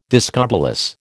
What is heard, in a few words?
"discobolus", American